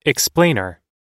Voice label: "explainer", pronounce

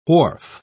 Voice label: "wharf", pronounce

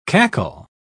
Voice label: "cackle", pronounce

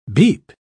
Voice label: "beep", pronounce

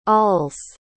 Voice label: "awls", pronounce

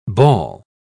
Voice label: "ball", pronounce

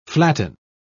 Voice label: "flatten", pronounce

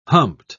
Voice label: "humped", pronounce

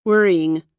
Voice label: "wearying", pronounce

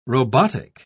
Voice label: "robotic", pronounce

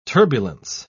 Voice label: "turbulence", pronounce

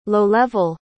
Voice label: "low-level", pronounce

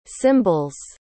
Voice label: "cymbals", pronounce

